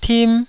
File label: "tim1", taiwanese Syllables